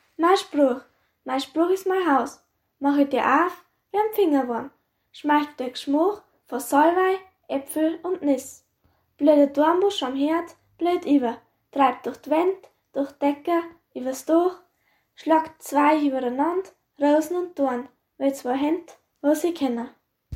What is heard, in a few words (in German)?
Gedicht, dialekte; Hoelle